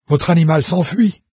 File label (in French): pet flee, VOICES fr NARRATOR; Fate